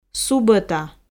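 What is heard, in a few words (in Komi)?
"субота", Komi, female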